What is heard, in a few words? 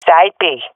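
loc b, swat female; vo; agents; Source Model Packs; Counter Strike Model Pack; CS2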